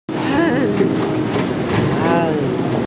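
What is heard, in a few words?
"hei1an4", Mandarin Chinese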